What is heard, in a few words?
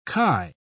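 "khi", British English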